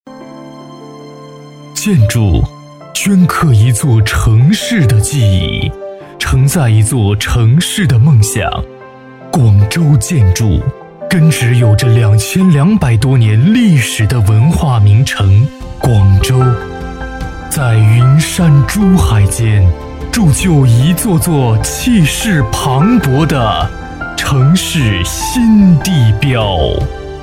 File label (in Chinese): 工程专题 沉稳大气; 建筑广州